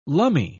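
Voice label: "lummy", British English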